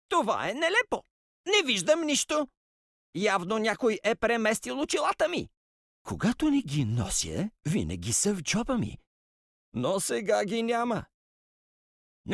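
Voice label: bulgarian male character; voice demos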